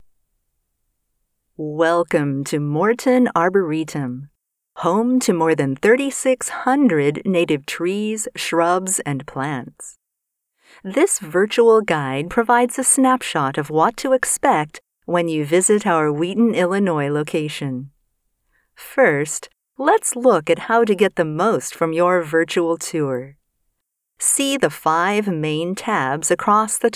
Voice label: eLearning